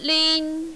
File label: "lin1", mandarin, female